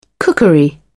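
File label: "cookery", British English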